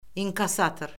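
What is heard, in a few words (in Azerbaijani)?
"inkassator", Azerbaijani; Orfoqrafiya Lüğəti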